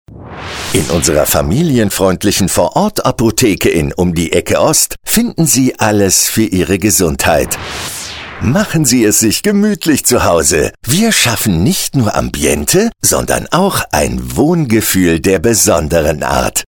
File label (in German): vertrauensvoll heimelig